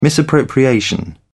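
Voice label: "misappropriation", British English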